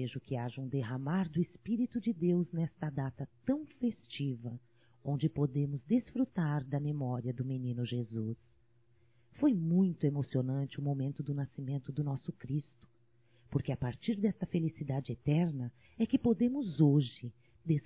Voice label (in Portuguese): NATAL ROMANTICO, Telemensagens, fem; Telemensagens 2017; Natal & Ano Novo